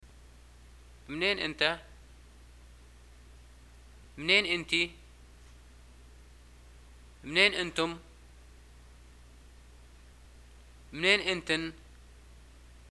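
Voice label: where are you from, Libyan arabic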